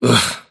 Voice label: anger; davion; dota; dragon knight

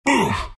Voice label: treant pain

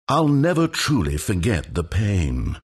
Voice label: announcer count battle; dlc stanleyparable

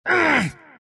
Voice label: anger; dota; omniknight

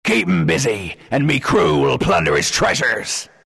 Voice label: announcer pick slardar, pirate; dlc workshop; capn

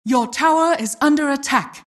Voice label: announcer tower attack

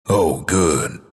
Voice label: announcer disconnect reconnected; dlc bastion

